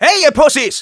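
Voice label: taunts, German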